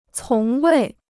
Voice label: "从未", Chinese